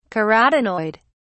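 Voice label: "carotenoid", British English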